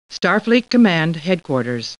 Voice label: Computer Voice; Star Trek